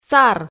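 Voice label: "сар", Komi, female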